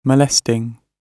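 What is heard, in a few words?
"molesting", British English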